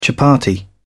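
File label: "chapatti", British English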